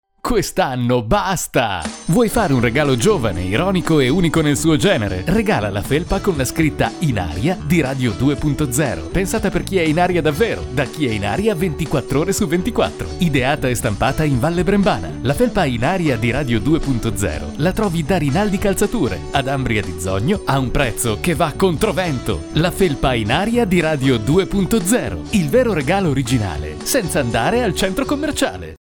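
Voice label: Italian male voice over, sales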